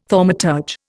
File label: "thaumaturge", Indian